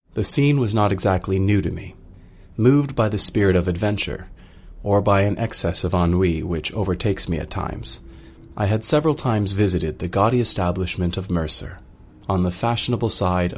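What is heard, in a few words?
drama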